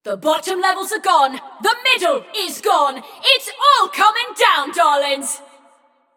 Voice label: Scrap Run Entire Arena Nearly Gone, Announcer; Flux